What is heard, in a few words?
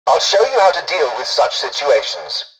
tutorial dialogue, english; War Thunder